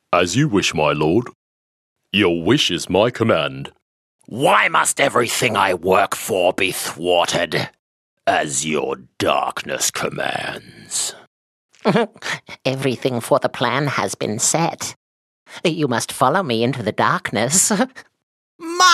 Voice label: Character; TaDa